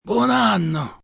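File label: New Year Italian M, male, Italian; Occasion, event; Holiday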